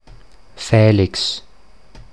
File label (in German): St. Felix Mundart